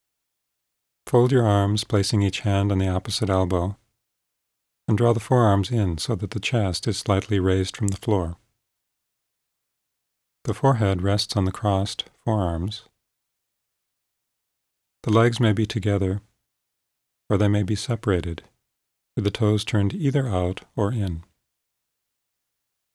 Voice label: Relaxed Breath Training